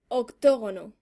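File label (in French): "octogone", French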